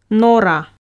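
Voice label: "нора", Komi, female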